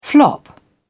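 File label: "flop", British English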